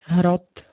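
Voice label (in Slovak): "hrot", Slovak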